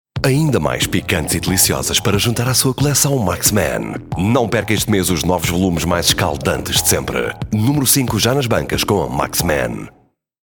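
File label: Portuguese commercial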